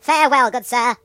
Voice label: "Bye bye", British; hedgewars